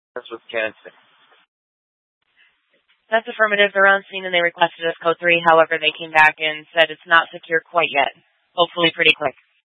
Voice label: Fire Departments Mesa Simulcast; TOPAZ